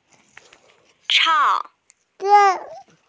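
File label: "chang4", Mandarin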